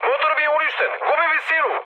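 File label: Serbian damaged engine mood med, speech; War Thunder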